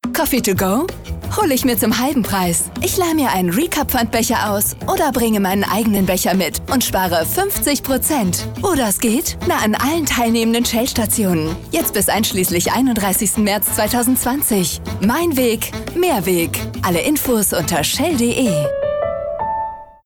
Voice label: radio; shell